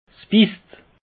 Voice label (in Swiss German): "bisst", alsace; peche